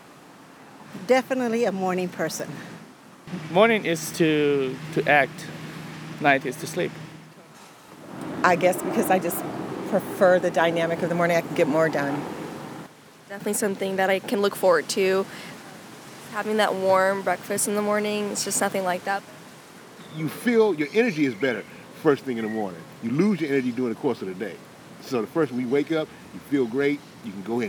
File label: VOX POP